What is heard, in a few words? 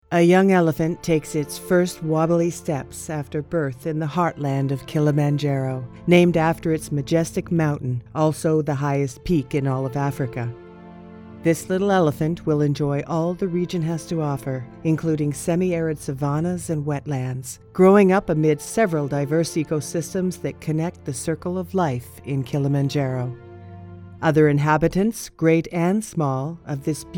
Voiceover Documentary